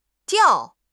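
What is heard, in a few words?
"diao4", Mandarin Chinese; HSK; HSK4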